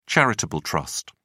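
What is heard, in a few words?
"charitable trust", British English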